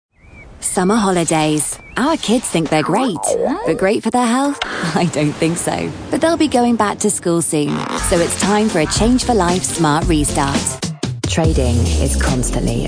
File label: English British commercial, chatty; britische Synchronsprecherin; Englisch (Britisch); Spanien